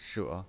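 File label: "she", Mandarin Chinese pinyin, third tone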